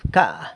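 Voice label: "bca", San Lucas Quiaviní Zapotec; crow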